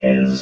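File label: "his", robot voice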